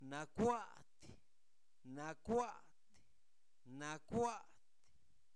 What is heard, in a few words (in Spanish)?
Zapoteco, santo domingo albarradas; sordo